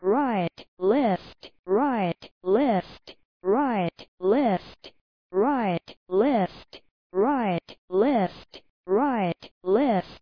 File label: right channel left channel